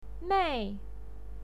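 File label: "mei", Mandarin Chinese, fourth tone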